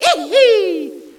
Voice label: ehee, Echo inside caves, underground; DS; ML BOWSERSINSIDE; Mario